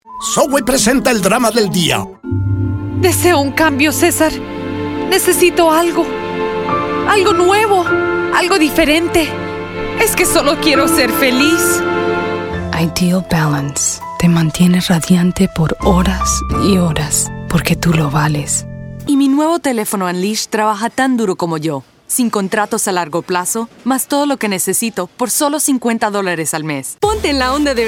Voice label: comm demo sp